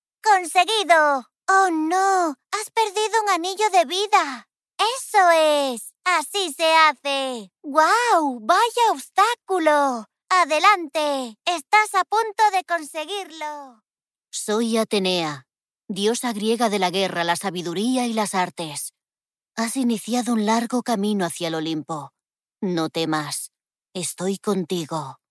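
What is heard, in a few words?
Spanish, female, characters; voice-over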